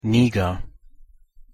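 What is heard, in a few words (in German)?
"niger", German; pronunciation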